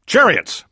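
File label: "yes", English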